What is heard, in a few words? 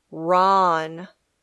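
"Ron", English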